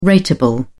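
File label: "ratable", British English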